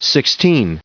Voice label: "sixteen", en-us